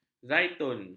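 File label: "zaitun", Malay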